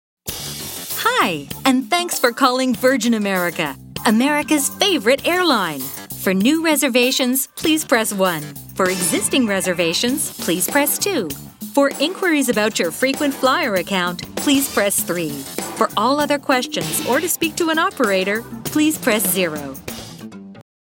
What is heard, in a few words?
Friendly Upbeat